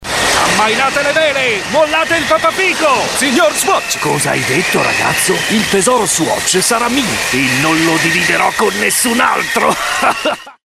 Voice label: spot; swatch